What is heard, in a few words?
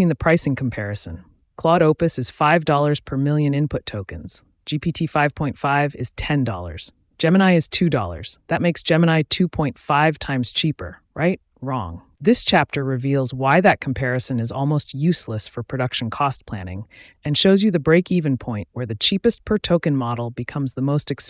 voiceover